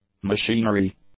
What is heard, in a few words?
"machinery", English; nouns